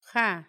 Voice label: agua, Paipai

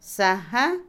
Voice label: dedo, Otomí, mujer; Cuerpo; INALI

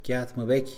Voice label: "dudar", Mixe, hombre; Cognición; INALI

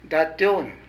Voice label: Tio, Zapoteco de Petapa; Parentesco; Vocabulary

